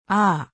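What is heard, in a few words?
"あ", Japanese; 基礎日語五十音聽力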